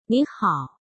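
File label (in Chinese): "你好", Chinese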